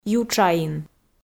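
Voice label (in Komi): "ютшаин" Komi, female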